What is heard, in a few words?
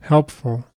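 "helpful", US English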